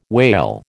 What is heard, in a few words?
"wale", American